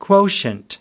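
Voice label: "quotient", American English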